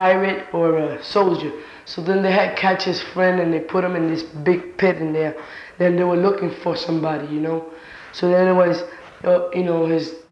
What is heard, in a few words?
Conversation with 15 year old male New York New York; american english dialect